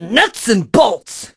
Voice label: hurt vo

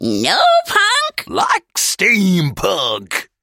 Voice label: Hero voice

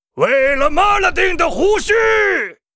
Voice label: Gryphon rider yes attack, zh

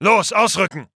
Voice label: Neutral

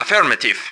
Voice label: affirm, ru

